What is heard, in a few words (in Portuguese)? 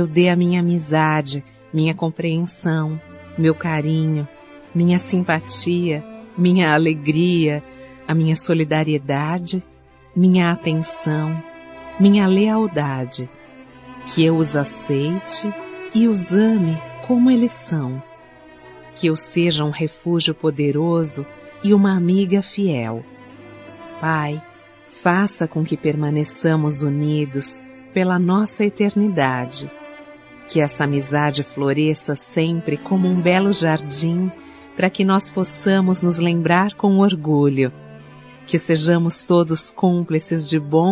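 Oracao pelos Amigos, Feminino; religiosas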